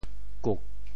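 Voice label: "kuk4", Teochew; 榾; 潮州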